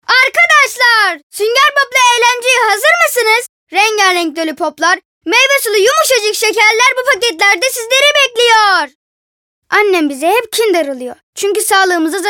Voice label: REKLAM

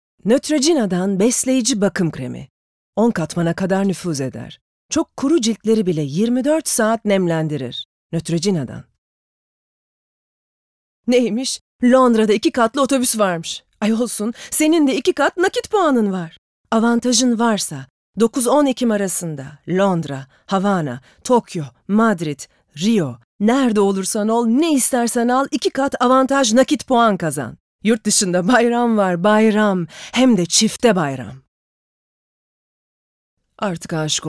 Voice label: REKLAM, tr

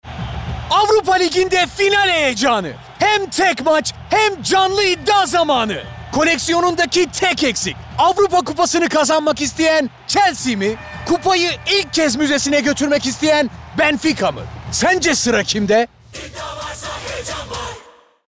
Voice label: Neseli

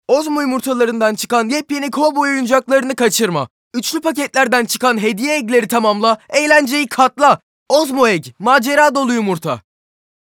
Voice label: Reklam

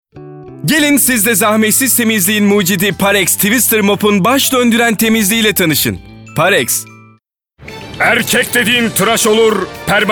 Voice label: Reklam

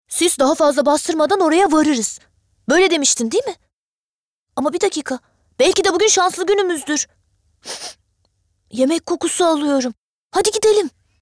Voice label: Animasyon

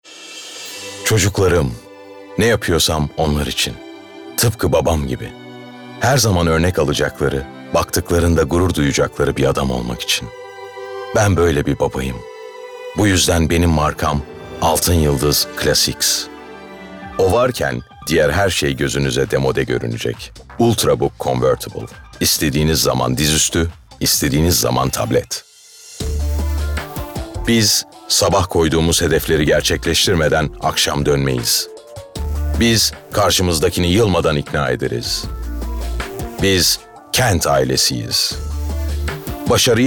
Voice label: REKLAM

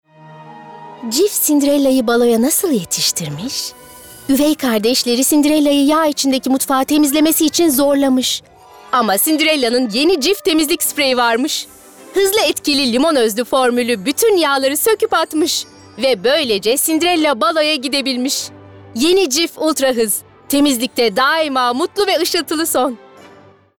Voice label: Komik

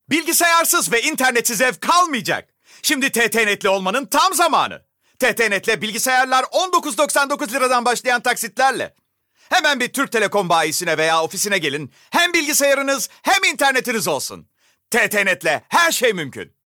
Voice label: Neseli

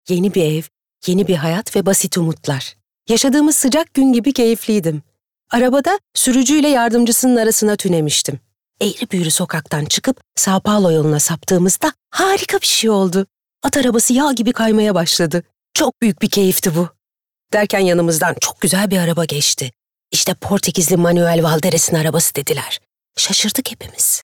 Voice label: Sesli Kitap

